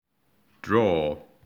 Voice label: draw br